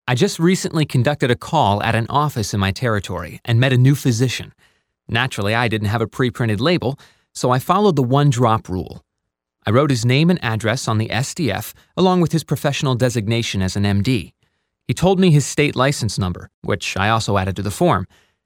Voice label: 20s Male